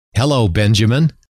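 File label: hello, male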